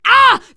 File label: horde attack, teen girl, survivor voice